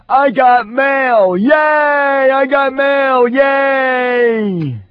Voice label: Funny; Crankyankers